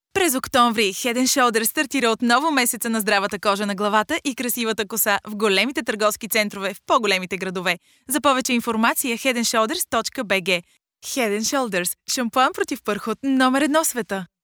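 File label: Bulgarian, female, voice over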